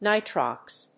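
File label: "nitrox", English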